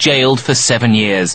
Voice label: LoFi Phrases, LoFi Vocals; Vengeance Samples